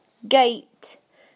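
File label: "gate", British English; PictureDictionary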